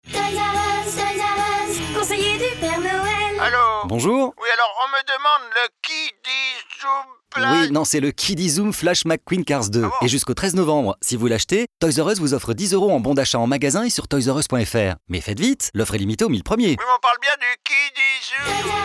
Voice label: Naturel serviable; Toys r us

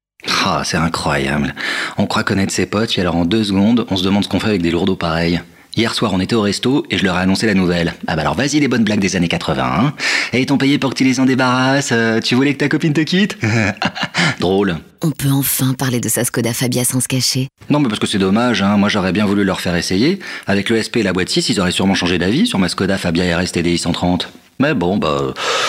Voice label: Naturel agacé; Skoda-Fabia

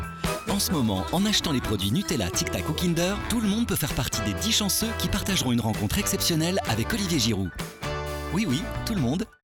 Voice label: souriant promo; voix off; Kinder

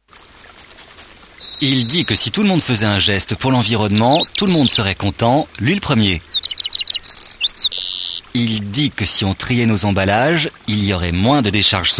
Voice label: naturel positif; voix off; Eco-Emballages

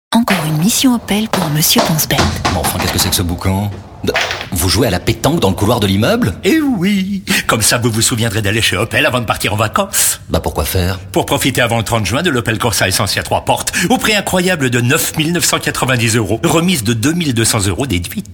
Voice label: comédie nerveux agacé; Opel

